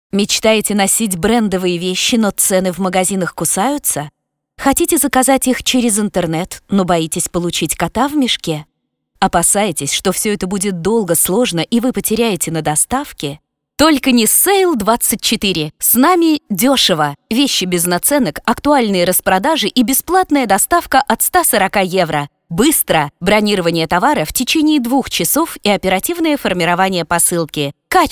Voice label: аудиореклама запись голоса; бренда SALE 24; монтаж сведение ролика

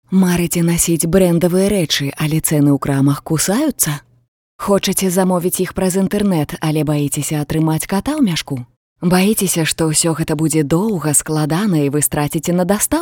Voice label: локализация аудиорекламы для республики Беларусь запись голоса; бренда SALE 24; монтаж сведение ролика

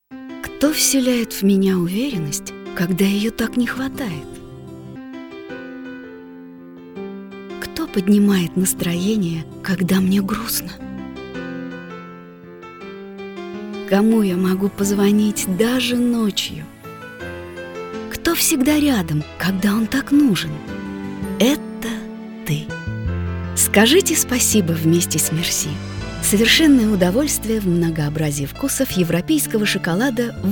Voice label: ТВ РЕКЛАМА

